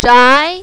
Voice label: "zhai2", mandarin, female

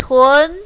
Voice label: "tun2", mandarin, female

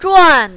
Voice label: "zhuan4", mandarin, female

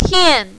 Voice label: "tian4", mandarin, female

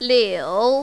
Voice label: "liu3", mandarin, female

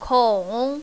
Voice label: "kong3", mandarin, female

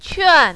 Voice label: "quan4", mandarin, female

